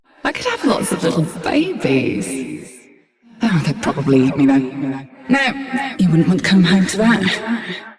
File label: Ambient Barks, en